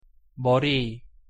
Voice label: "머리", Korean; pronunciation